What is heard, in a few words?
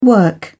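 "work", British English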